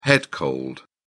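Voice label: "head cold", British English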